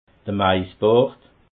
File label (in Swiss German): "maisbart", alsace